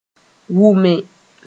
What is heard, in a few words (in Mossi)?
"wʋme", moore; hear understand